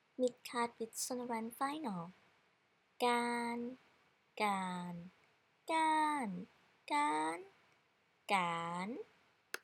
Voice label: mid sonorant